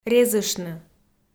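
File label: "резыштны", Komi, female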